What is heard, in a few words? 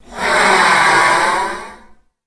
taunt, reptilian